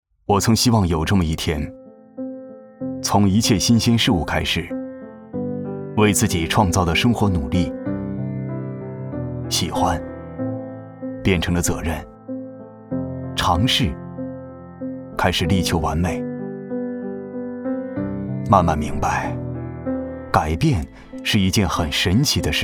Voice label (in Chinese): 男 餐饮（品质）; 福煌兄味道餐饮